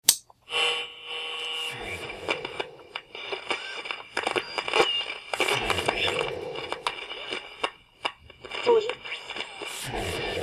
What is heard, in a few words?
ghost radio floor whisper; winchester